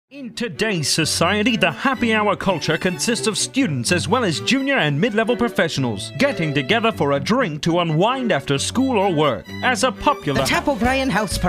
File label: character reads, broadcast